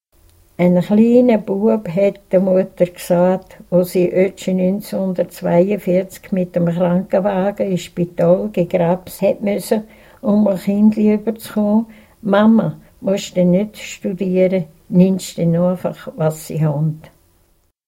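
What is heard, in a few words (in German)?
frümsen kinderreichtum, sennwalder dialekte; frauengeschichten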